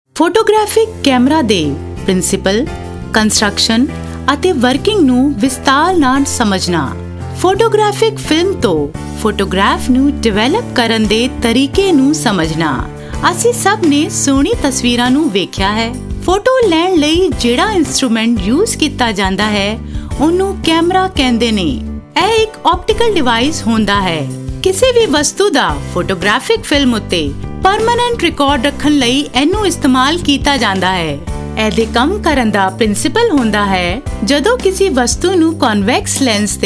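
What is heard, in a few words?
Punjabi female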